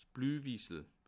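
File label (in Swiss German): "bleiwis", Bas Rhin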